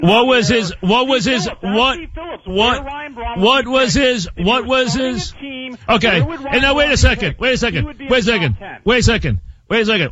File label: Arguing With Caller; WFAN